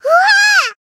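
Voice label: damaged, Japanese; loveli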